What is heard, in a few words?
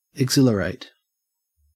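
"exhilarate", Australian English; pronunciations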